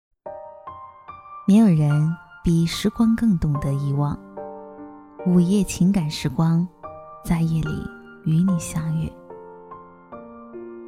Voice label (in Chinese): 成熟知性风格